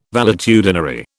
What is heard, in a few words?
"valetudinary", British